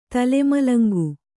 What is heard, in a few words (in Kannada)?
"ತಲೆಮಲಂಗು", kannada